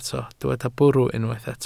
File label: "bwrw", Welsh